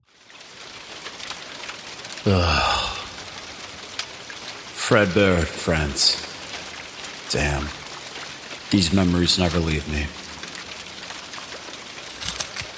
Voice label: Intro Monologue, Voiceover Actor; Spring Nights; Video Game Audio Demos